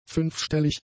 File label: "fünfstellig", German; adjectives